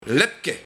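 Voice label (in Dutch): "Lepke", dialect; 1. Washandje; 2. Projectdrager voor schieterke; 3. Stukje stof opnaaien; Washandje (zie henneke)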